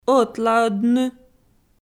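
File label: "ӧтлаӧдны", Komi, female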